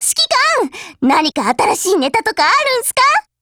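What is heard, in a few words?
Japanese; MDR; HELLO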